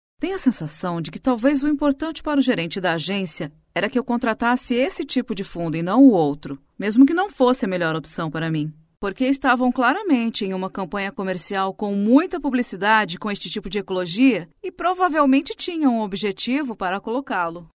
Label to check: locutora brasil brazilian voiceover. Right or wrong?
Right